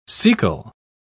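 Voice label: "cecal", British English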